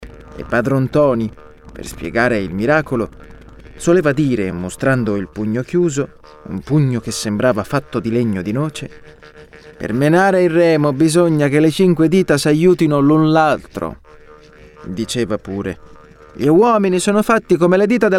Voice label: Audiobook Audiolibro; I Malavoglia